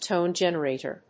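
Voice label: "TONE GENERATOR", English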